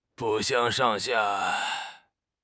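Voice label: "不相上下", Mandarin Chinese; HSK6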